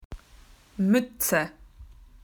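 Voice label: "cap", Australian English; PictureDictionary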